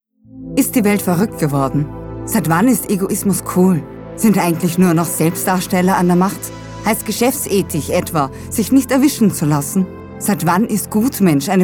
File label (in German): WERBUNG; RV Versicherngn